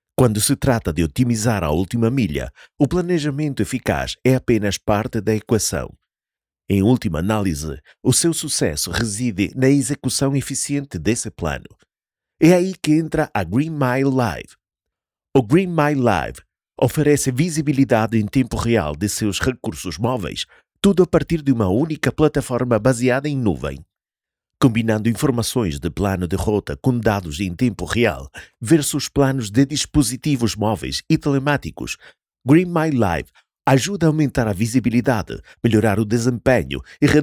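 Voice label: Video, European Portuguese; GreenMile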